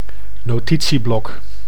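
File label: "notitieblok", Dutch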